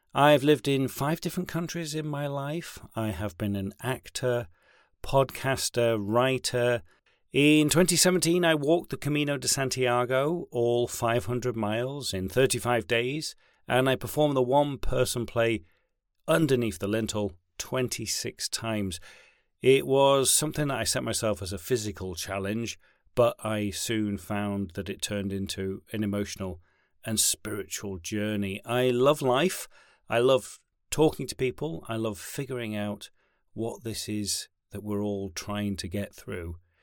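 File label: English, British, male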